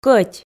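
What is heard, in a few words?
"кӧть", Komi, female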